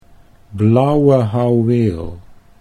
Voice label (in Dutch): blauwe houweel, Dutch